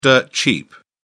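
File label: "dirt cheap", British English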